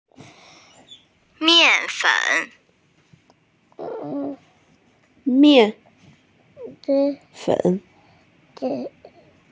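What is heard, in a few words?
"flour", Mandarin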